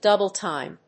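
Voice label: "double time", English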